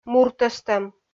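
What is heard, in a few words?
"муртӧстӧм", Komi, female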